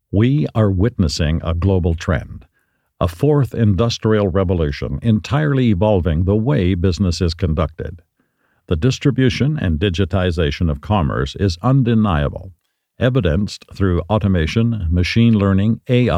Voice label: corporate internal

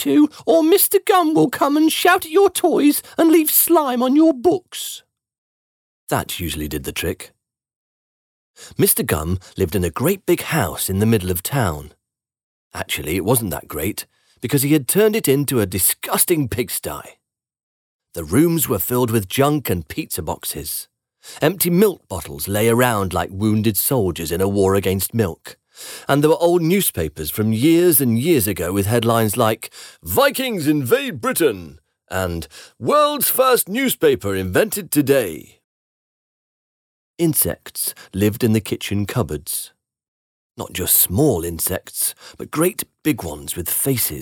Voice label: Children s Audiobook Example

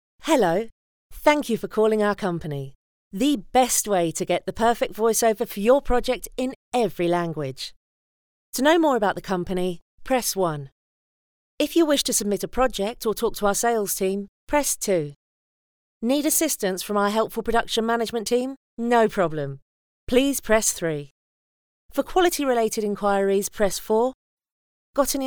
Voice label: Telephone IVR

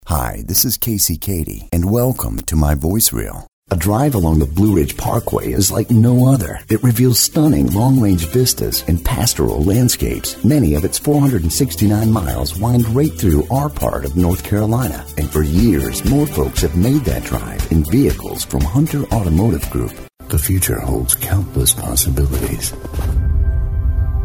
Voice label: Voiceover Showreel

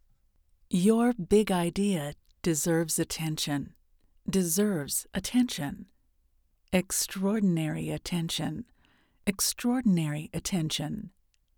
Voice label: raw dry studio recording

